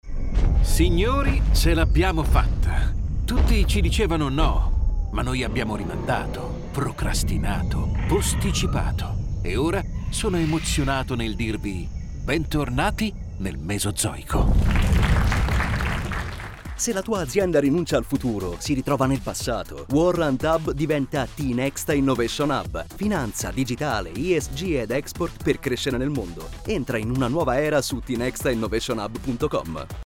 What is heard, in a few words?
RADIO; LINEA EVOLUTION; campagna rebranding 2025